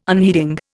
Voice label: "unheeding", Indian

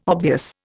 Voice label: "obvious", Indian

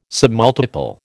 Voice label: "submultiple", American

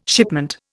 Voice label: "shipment", Indian

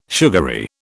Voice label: "sugary", British

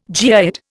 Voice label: "geoid", Indian

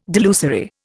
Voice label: "delusory", Indian

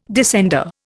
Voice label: "descender", Indian

